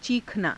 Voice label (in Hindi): "ciikhanaa", Hindi; [自動]悲鳴をあげる; चीखना